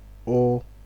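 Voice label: "o", Nigerian Pidgin, male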